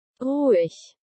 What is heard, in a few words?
Quiet in german dog commands